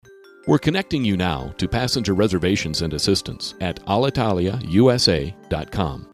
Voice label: Telephony